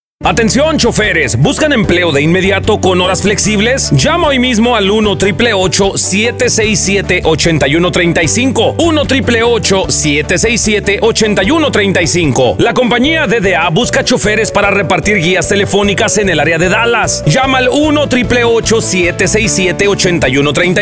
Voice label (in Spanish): Span; Dallas